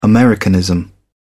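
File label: "americanism", English, British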